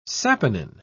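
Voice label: "saponin", British English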